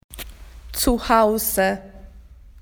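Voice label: "home", Australian English; PictureDictionary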